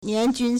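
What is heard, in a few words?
"nian2jun1", Mandarin Chinese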